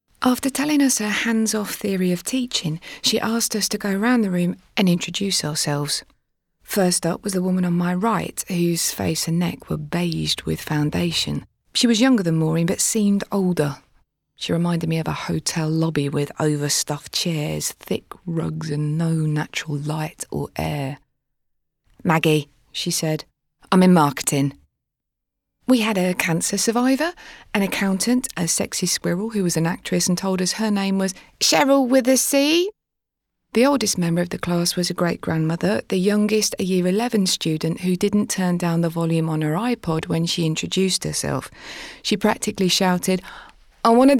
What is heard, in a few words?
Narrative